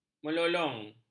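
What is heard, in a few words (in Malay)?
"melolong", Malay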